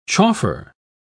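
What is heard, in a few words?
"chauffer", British English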